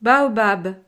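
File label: "baobab", French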